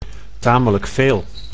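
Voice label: "tamelijk veel", Dutch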